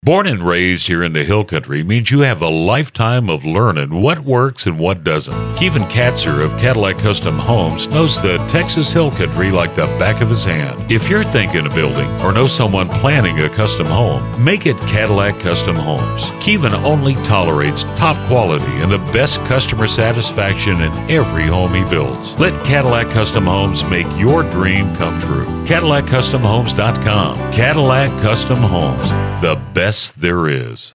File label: Radio Advertisment